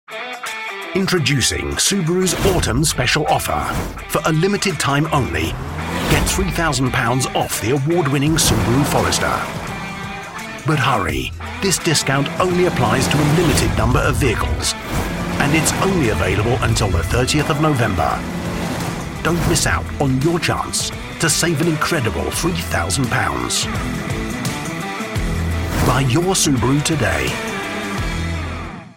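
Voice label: English UK Commercial; GoLocalise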